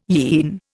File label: "yean", Indian